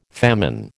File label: "famine", American